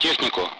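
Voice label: Speech, Russian; Allied Flight; DCS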